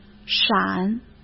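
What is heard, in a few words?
"闪", Mandarin Chinese; chinese flashcards; hsk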